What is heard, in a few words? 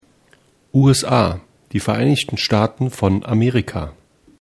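"USA", German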